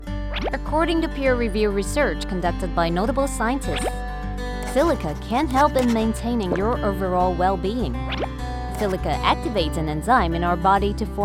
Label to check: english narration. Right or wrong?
Right